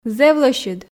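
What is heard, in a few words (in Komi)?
"зэв лӧсьыд", Komi, female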